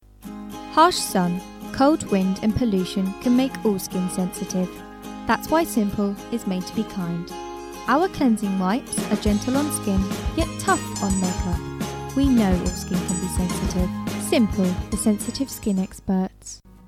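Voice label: TVC English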